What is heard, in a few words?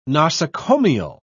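"nosocomial", British English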